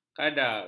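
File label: "kadar", Malay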